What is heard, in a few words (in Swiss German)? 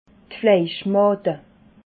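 "fleischmade", Alsatian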